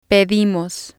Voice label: "pedimos", spanish